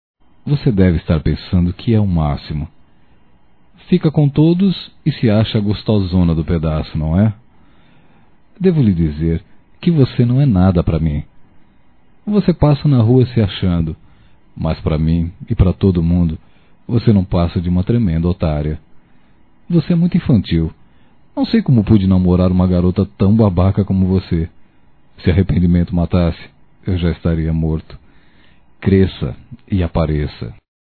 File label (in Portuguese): Xingando Ex-Namorada Masculino; Telemensagens 2017